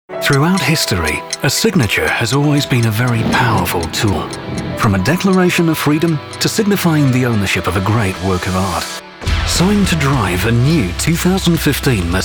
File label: Auto Dealer Male British, commercial voiceover